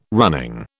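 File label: "running", British English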